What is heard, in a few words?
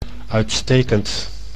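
"uitstekend", Dutch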